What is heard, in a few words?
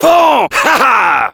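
Heavy nice shot, French; "Pan ! (Rire court)"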